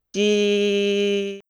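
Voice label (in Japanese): "ぢ", Japanese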